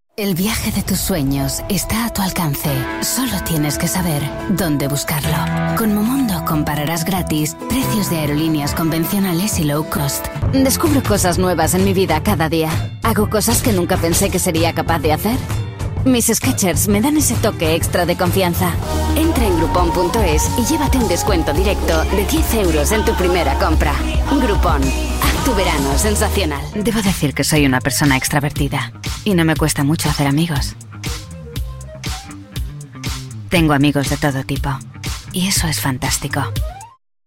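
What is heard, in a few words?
female Spanish commercial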